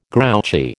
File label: "grouchy", American